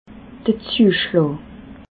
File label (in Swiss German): "zueschlaa", alsace